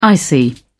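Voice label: "icy", British English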